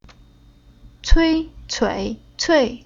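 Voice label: "Cui", Chinese syllable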